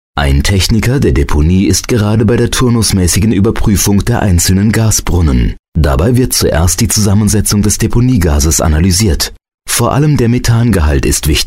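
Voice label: German, male, narration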